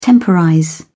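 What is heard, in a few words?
"temporize", British English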